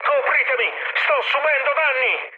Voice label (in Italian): aircraft damaged, mood high, speech it